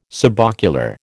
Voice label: "subocular", American